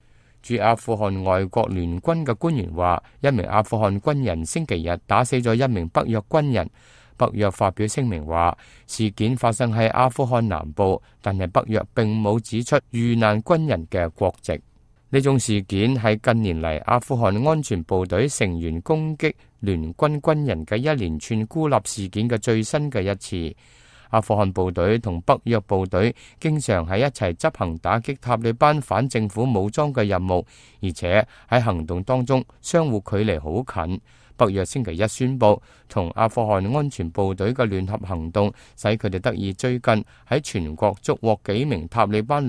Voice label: cantonese, news